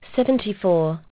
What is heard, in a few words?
aus, aussie prompts